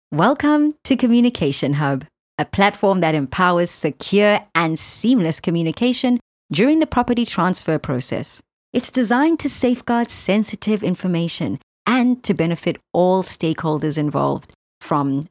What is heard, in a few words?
Friendly conversational; Communication Hub